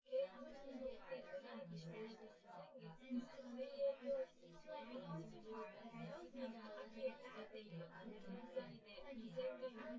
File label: female synthconv